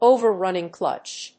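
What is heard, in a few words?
"OVER-RUNNING CLUTCH", English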